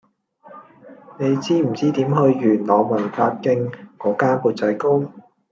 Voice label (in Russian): Голоса, Гонконгский